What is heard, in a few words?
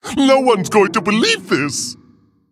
Male Mogu Play